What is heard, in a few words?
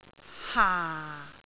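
"haa4", Cantonese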